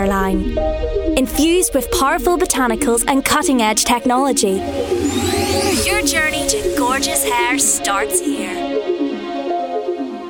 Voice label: female Northern Irish multiple accents commercial compilation